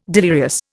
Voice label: "delirious", Indian

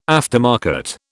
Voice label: "aftermarket", British

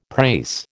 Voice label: "prase", American

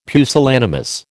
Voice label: "pusillanimous", American

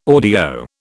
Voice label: "audio", British

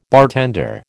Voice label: "bartender", American

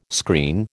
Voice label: "screen", American

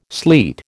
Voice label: "sleet", American

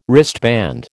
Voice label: "wristband", American

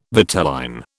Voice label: "vitelline", British

